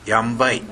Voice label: "yanbae", minamiyamagata